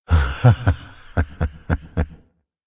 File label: laugh; Taric